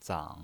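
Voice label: "zang" Mandarin Chinese pinyin voice, third tone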